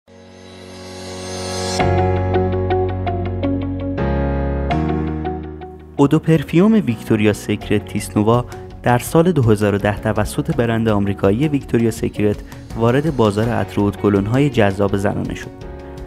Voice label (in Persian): VOICE PERSIAN; VICTORIA SECRET NOIR TEASE